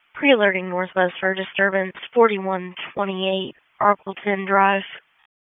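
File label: Police Southwest District Dispatch; Raleigh; wake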